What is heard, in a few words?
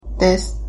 my name is, japanese